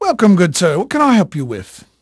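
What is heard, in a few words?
English male, voice; Pirates Of The Caribbean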